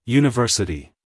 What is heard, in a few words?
"university", US, male; 1000 hours